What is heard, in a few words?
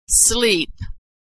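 "sleep", American English; verb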